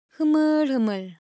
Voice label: Korean, Ideo Phones; Texture